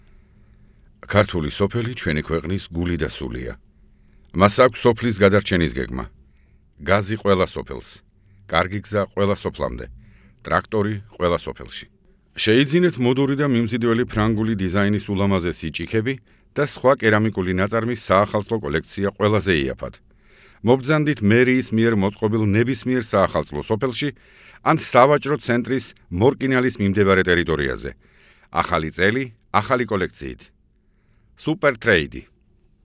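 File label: georgian male narration; voice demos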